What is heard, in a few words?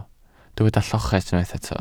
"lloches", Welsh